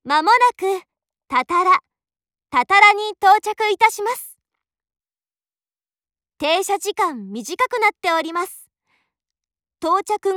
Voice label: 茂木方向 多田罗站抵达前 广播, 车内广播; 万冈铁路车内广播

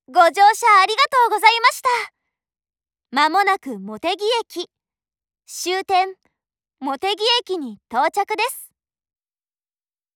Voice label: 真岡站抵達前 廣播, 鐵路社内放送; 萬岡鐵路社内放送

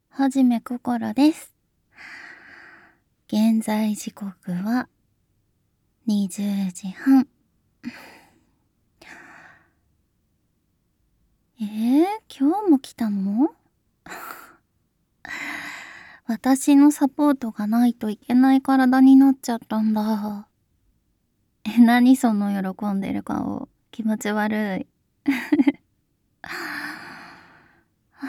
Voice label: 言语挑逗; 面向抖M绅士的言语挑逗; 无修音ver.

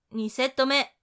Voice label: 第2组, 女生; 俺娘

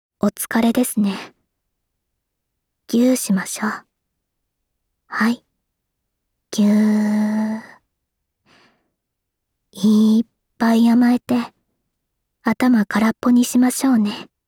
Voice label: 辛苦了。我们来抱抱吧, 闹钟音频

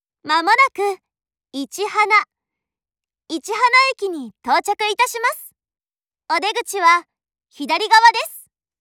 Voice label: 市塙站抵達前 廣播, 萬岡鐵路社内放送; 真岡方向

